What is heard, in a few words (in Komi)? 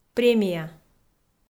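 "премия" Komi, female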